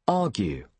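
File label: "argue", British English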